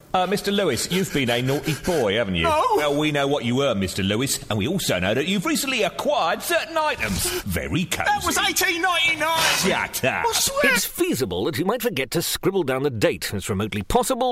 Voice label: Character